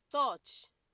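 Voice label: "totori", Nigerian Pidgin, male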